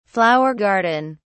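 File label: "flower garden", British English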